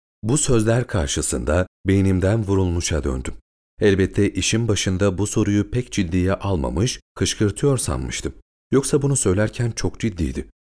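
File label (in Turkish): KITAP, Seslendirme; Sesli Kitap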